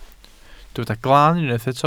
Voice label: "glaan", welsh